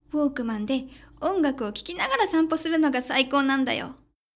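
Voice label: female; ウォークマンで音楽を聴きながら散歩するのが最高なんだよ。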